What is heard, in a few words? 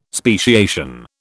"speciation", British